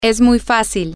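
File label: it is very easy, spanish, female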